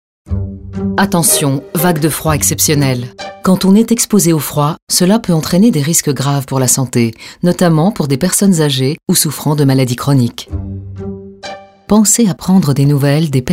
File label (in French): Spot Radio; Grand Froid; Solidarite; INPES